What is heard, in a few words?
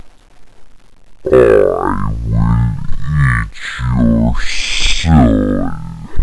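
I will eat your soul! Mindless thing, fun with slowing and echoes.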